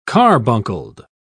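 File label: "carbuncled", British English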